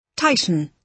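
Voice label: "tighten", British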